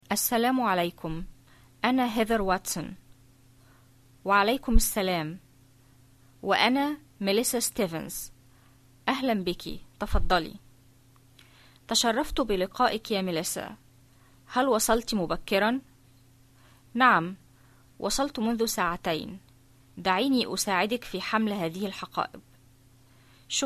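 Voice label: Modern Standard Arabic greetings, dialogues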